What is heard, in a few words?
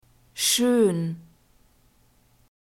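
"schön", German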